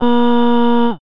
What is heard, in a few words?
female "A"